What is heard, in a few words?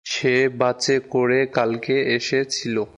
Bengali, Bangladeshi Accent